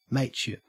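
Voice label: "mateship", Australian English; pronunciations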